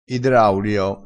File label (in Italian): "idraulio", crespinese